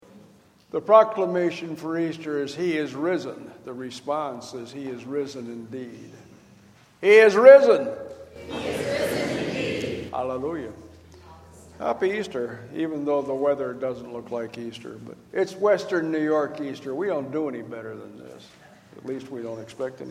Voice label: Welcome and Announcements; Easter